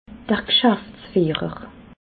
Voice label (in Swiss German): "gschaftsfiehrer", alsace